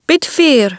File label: Gaelic Queen; age2